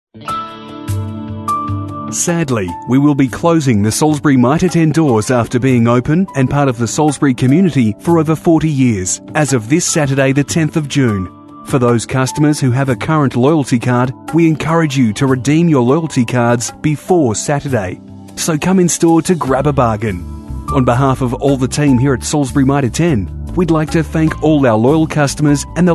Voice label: serious formal corporate